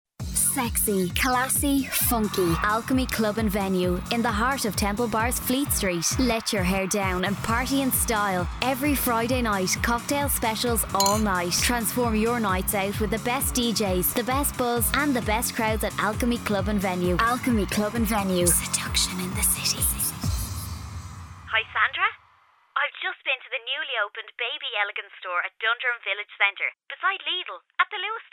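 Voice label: English Irish Female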